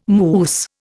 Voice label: "mousse", Indian

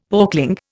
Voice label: "porkling", Indian; Dictionary

